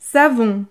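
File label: "savon", French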